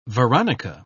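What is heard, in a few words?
"veronica", British English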